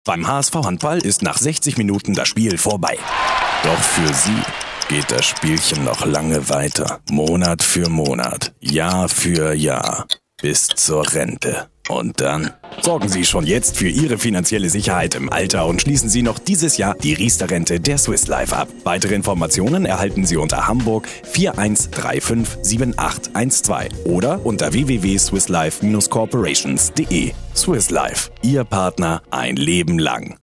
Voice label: werbespot versicherung; swisslife

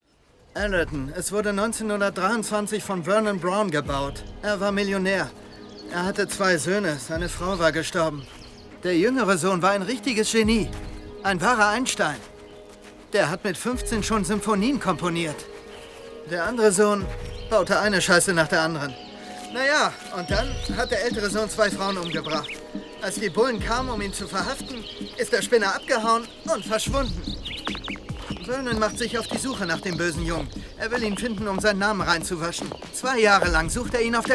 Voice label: synchro

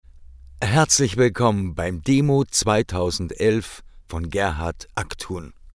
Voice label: ansage

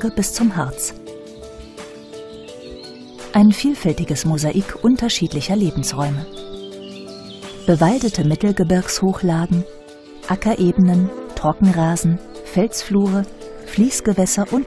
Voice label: doku sanft natur; Sprecher in Berlin, Bremen, Hamburg, Hannover, Kiel, Lübeck, Lüneburg

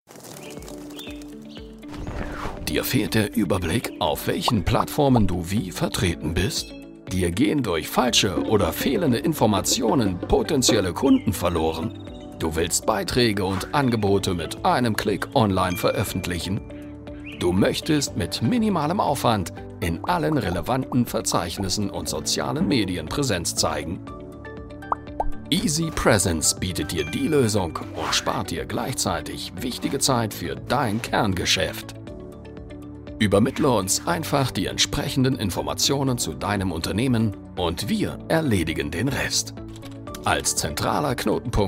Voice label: erklaevideo

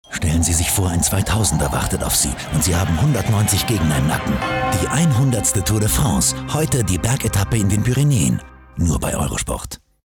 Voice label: werbung tour de france etappe; eurosport; etappe 8

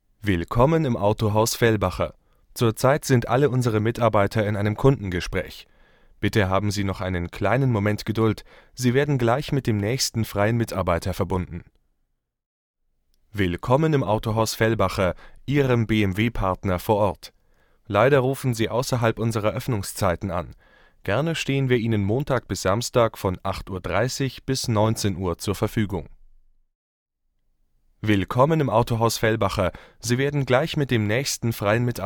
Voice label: telefonansage

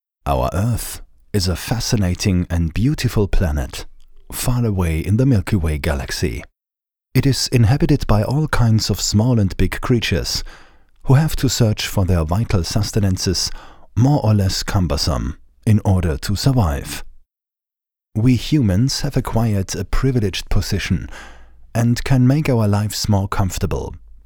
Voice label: englisch

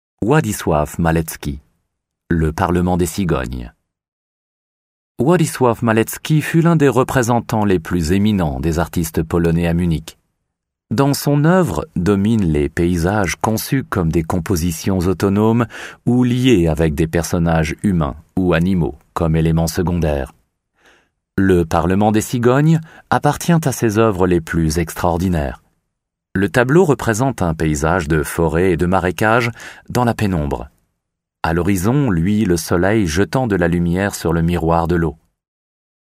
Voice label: erzaehlung; krakau tuchhallen

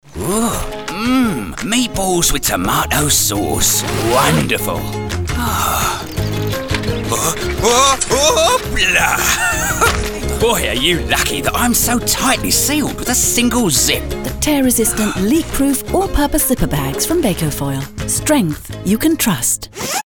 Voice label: trickstimme; bacofoil tvc

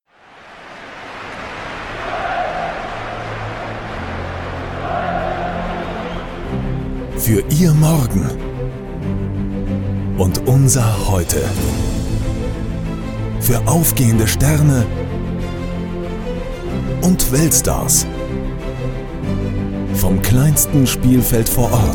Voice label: imagefilm; Muttersprache Deutsch; dfb

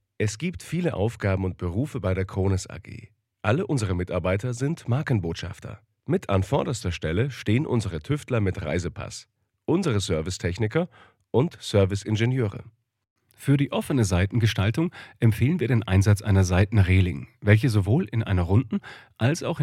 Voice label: e learning mix

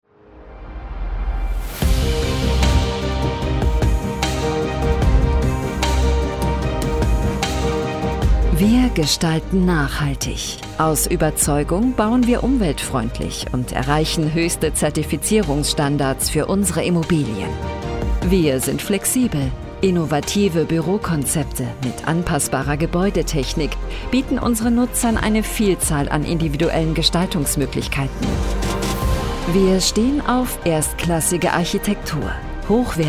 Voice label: imagefilm immobilienbranche souveraen warm

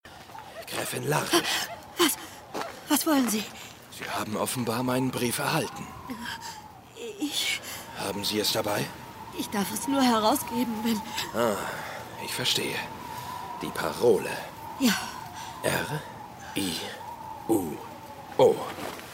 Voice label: hoerspiel; sherlock holmes; meyerling

